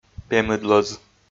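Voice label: "пемыдлӧз", Komi, male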